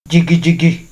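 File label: "jigijigi", Nigerian Pidgin, male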